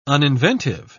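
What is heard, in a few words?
"uninventive", British English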